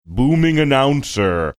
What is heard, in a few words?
booming announcer booming voice